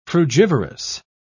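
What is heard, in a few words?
"frugivorous", British English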